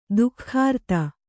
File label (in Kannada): "ಧಿಕೃತಿ", Kannada